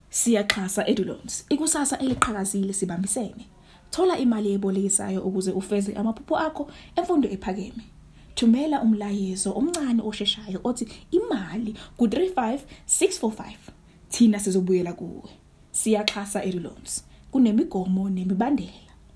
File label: Loans VO; Siyaxasa edu